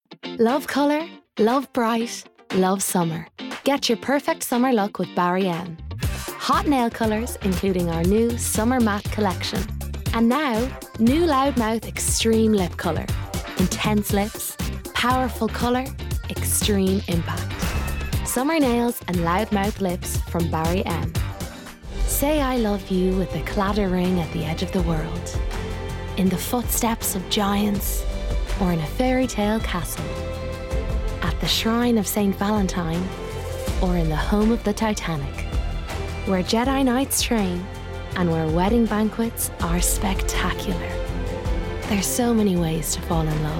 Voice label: Commercial